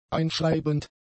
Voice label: "einschreibend", German; adjectives